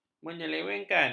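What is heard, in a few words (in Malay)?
"menyelewengkan", Malay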